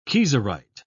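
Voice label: "kieserite", British English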